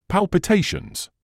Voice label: "palpitations", English